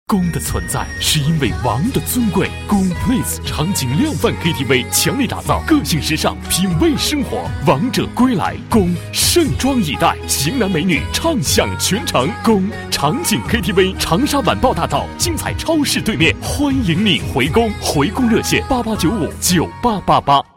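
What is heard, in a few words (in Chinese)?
广告场景通俗广告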